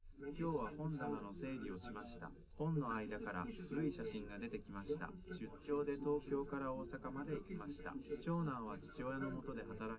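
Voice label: male3 live recording 130ms 1m, underdetermined; SiSEC11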